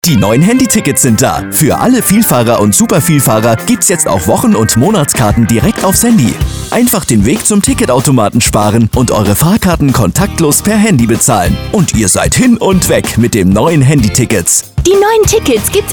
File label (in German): radiospot; zeitkarten handyticket